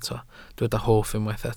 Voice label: "hoff", Welsh

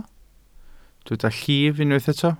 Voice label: "llif", Welsh

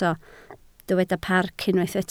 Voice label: "parc", Welsh

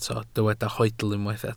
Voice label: "hoedl", Welsh

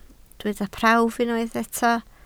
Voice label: "prawf" Welsh

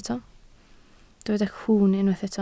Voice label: "cwwn" Welsh

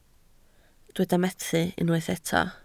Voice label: "methu" Welsh

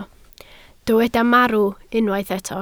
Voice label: "marw", Welsh

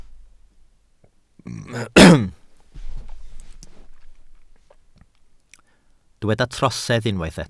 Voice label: "trosedd", Welsh

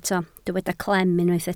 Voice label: "clem", Welsh

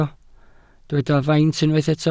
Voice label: "faint", Welsh

